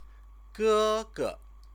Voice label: "gege", Chinese